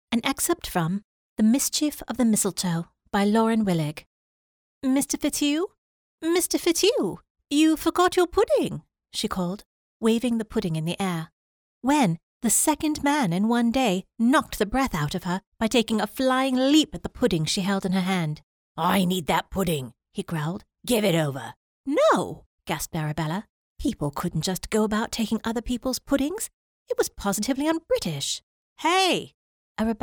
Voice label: english us and canada female generic